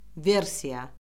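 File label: "versiya", Azerbaijani; Orfoqrafiya Lüğəti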